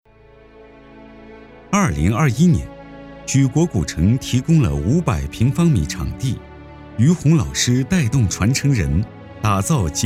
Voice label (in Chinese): 男 纪录片 非遗类纪录片